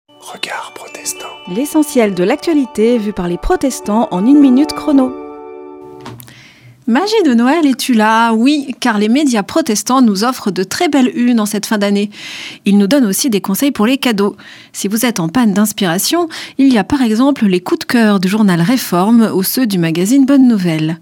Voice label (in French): Spot radio; Regards protestants